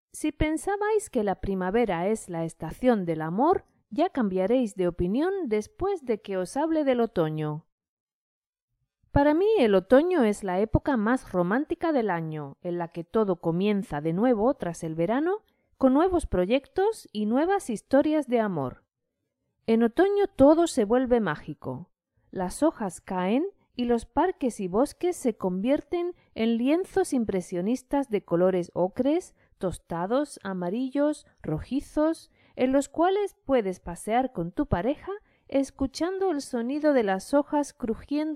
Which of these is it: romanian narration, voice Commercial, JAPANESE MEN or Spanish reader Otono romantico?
Spanish reader Otono romantico